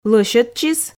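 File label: "лӧсьӧдчис", Komi, female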